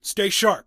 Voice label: scared; st allies; darkwing